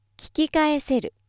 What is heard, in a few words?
female